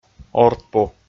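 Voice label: "ордпу", Komi, male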